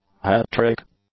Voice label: "hat-trick", English; nouns

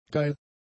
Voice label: "geil", German; adjectives

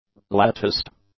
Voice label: "latticed", english; adjectives

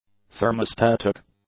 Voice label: "thermostatic", English; adjectives

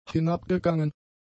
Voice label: "hinabgegangen", German; adjectives

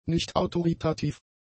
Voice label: "nichtautoritativ", German; adjectives

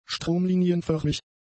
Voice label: "stromlinienförmig", German; adjectives

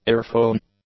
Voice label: "ear-phone", English; nouns

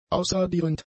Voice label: "ausradierend", German; adjectives

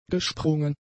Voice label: "gesprungen", German; adjectives

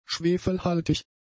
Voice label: "schwefelhaltig", German; adjectives

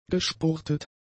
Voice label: "gespurtet", German; adjectives

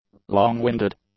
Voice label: "long-winded", English; adjectives

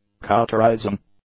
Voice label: "cauterizing", English; adjectives

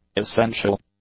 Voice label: "essential", English; adjectives

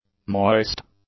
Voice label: "moist", English; adjectives

